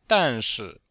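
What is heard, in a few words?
"dan4shi4", Chinese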